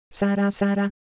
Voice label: Japanese, ideophones; Texture

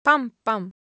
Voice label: Japanese, Ideo Phones; Shape

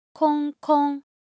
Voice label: Korean, Ideo Phones; Motion

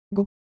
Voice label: Japanese Shape No Pros, Ideo Phones

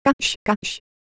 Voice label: Japanese Texture, Ideo Phones

